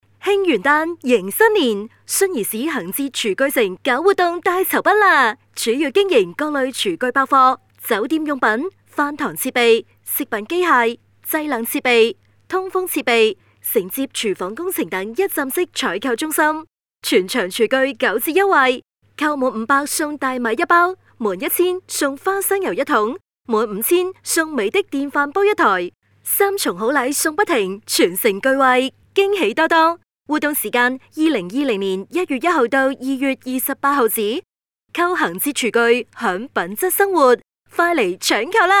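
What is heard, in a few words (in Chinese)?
女【促销】厨具城; 恒捷厨具城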